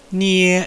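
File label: "nie", Chinese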